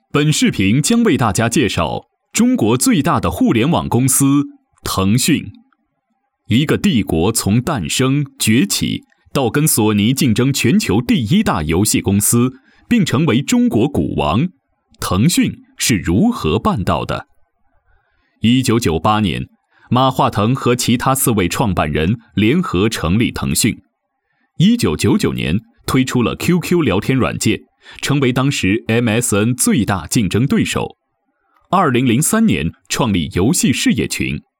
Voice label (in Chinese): 品牌故事大气沉稳年轻磁性; 腾讯